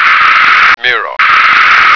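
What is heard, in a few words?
"mirror", American English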